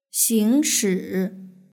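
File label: "xingshi" Chinese word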